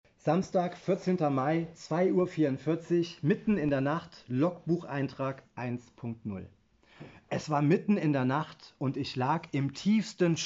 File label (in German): Live-Lesung